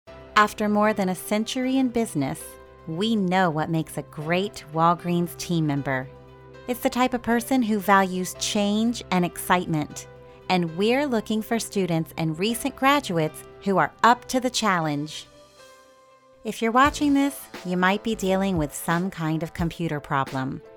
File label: Corporate